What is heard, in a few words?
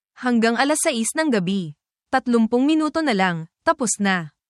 tagalog, tagalog filipino phrases; wikang hapon para sa lugar ng trabaho at pang araw araw na buhay